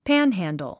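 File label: "panhandle", English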